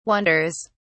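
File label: "wonders", British English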